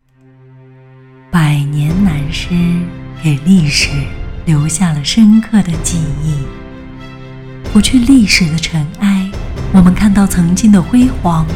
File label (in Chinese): 宣传片